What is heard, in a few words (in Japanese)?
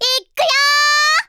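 "いっくよお", Japanese; Voice&Breath; Vocaloid 6.5.1; Otomachi; VOCALOID6 AI OtomachiUna Spicy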